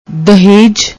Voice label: "dehaj", Punjabi; ਦਹੇਜ